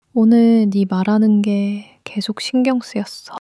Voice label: sadness, female